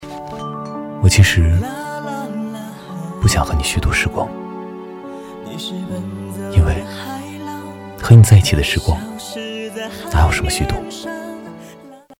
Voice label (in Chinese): 情感旁白; 虚度时光